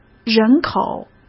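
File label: "人口", Mandarin Chinese; chinese flashcards; hsk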